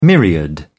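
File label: "myriad", British English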